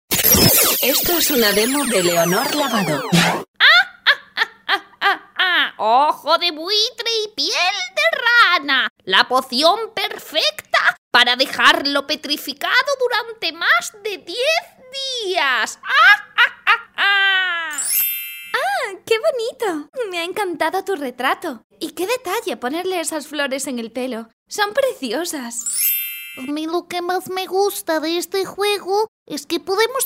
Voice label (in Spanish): INFANTIL